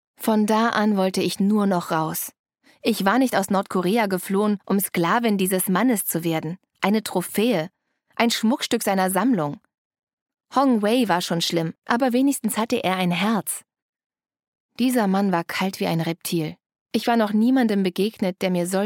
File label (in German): Hoerbuch, Audiobook (Hörbuch), German; Mut zur Freiheit